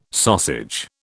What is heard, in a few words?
"sausage", British